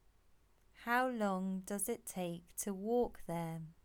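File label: English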